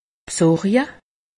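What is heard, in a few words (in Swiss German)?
"bsorje", Alsatian; medecine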